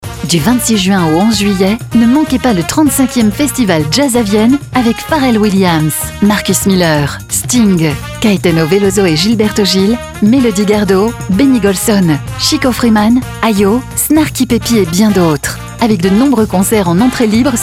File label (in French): spot; JAZZ A VIENNE